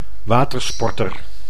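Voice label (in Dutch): "watersporter", Dutch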